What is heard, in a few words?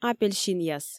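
"апельсинъяс" Komi, female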